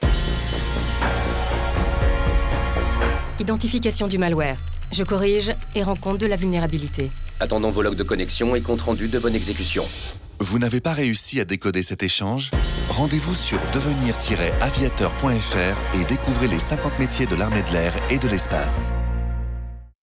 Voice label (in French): Spot radio; WAT ARMEE DE LAIR